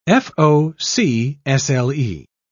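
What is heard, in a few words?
"fo'c'sle", British English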